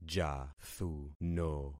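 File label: "jathuno", concatenated syllables; Affixing experiment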